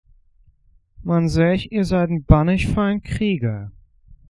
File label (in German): Krieger, Plattdeutsch; Man sagt, Ihr seid ein tapferer Krieger